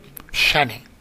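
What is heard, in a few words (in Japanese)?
"shane", 南山形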